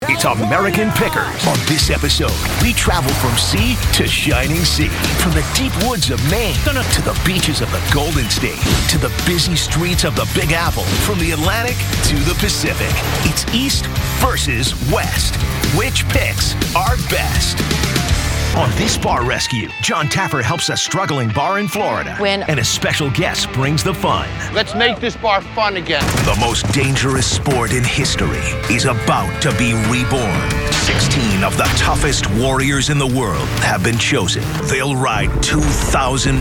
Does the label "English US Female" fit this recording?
No